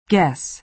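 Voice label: "guess", American English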